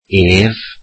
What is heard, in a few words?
welsh he